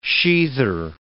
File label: "sheather", words